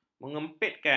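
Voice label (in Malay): "menyempitkan", Malay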